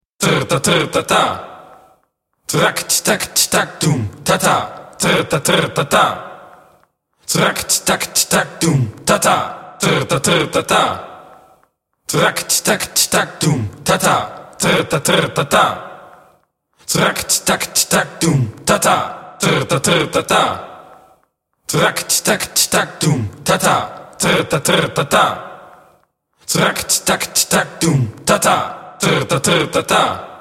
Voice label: Turbulent Vocals (fast), spoken; Djembolale - Fills and Drills